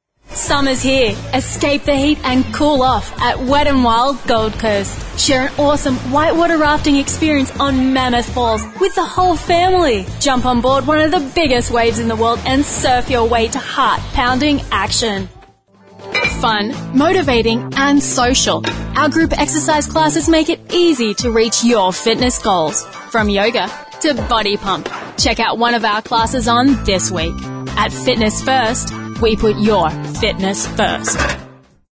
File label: female australian voice over sales